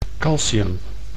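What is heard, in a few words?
"calcium", Dutch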